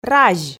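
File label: "разь" Komi, female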